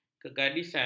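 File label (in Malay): "kegadisan", Malay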